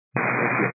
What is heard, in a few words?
122 725MHz, scanner radio